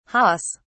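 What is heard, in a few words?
"hahs", British English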